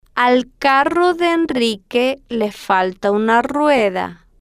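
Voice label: al Carro De Enrique Le Falta Una Rueda, Spanish, female, slow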